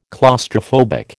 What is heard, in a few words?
"claustrophobic", American